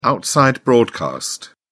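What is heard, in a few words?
"outside broadcast", British English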